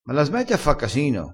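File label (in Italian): "fa casino", dialettale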